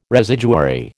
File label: "residuary", American